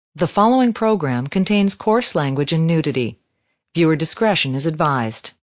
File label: Following Program, Female